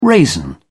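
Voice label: "raisin", British English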